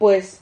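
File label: "pues", Spanish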